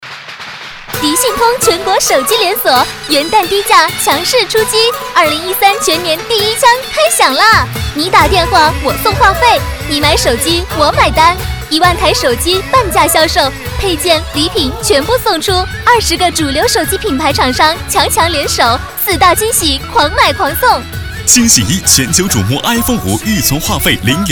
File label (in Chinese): 男女, 国语女声配音; 迪信通; 儿歌